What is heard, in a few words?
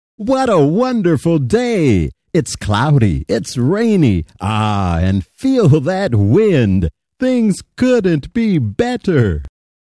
happy; Moods